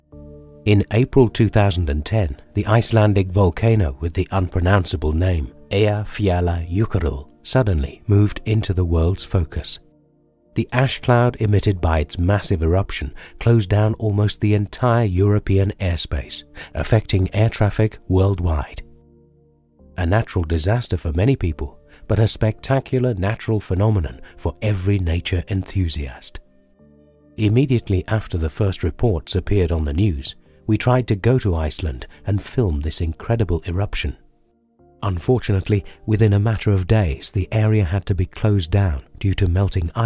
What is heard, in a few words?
english british documentaries